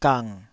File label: "gang3", Taiwanese